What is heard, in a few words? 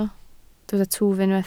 "twf", Welsh